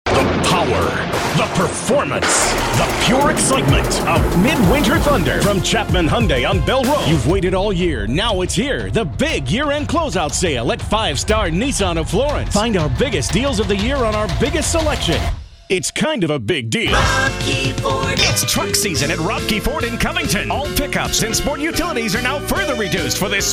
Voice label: Car Dealer